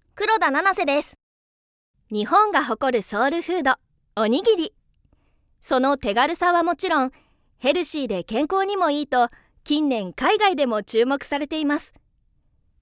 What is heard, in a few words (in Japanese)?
ストレートナレーション, 女性